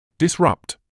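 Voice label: "disrupt", British English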